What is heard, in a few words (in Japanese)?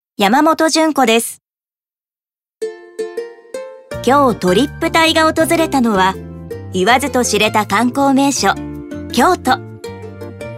ナチュラル